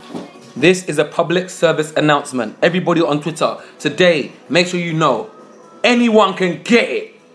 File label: public service announcement